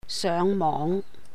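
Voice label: "séuhng móhng", Cantonese; go internet; 上網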